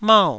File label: "mau4", Taiwanese syllable